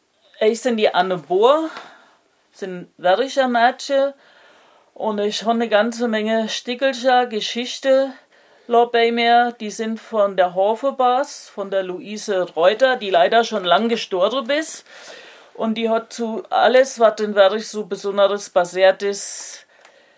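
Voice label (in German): vorstellung, Hunsrück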